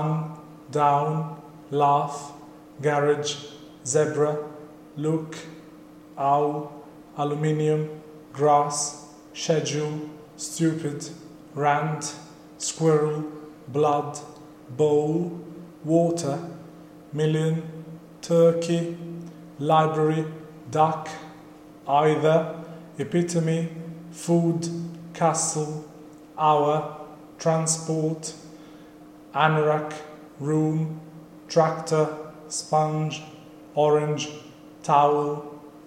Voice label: accent challenge